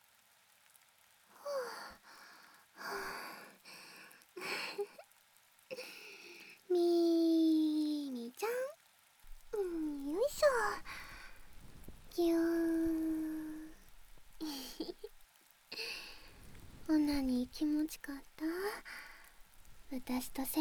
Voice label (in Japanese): ピロートーク, ASMR